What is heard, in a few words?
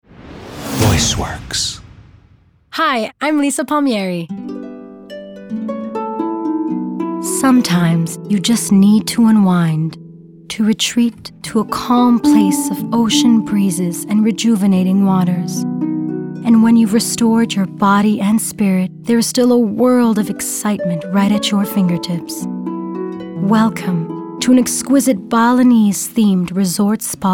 Narration